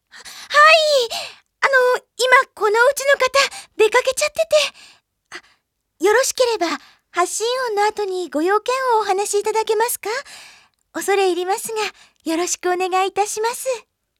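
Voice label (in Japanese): おまけヴォイス「留守電編」; おまけヴォイス; Ojousama Express original soundtrack vocal collection 1998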